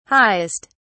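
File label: "highest", English, British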